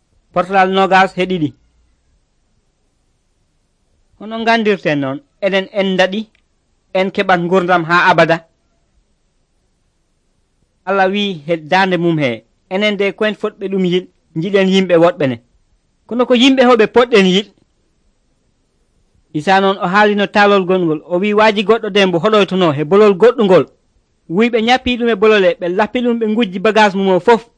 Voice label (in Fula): pulaar fulacunda, drama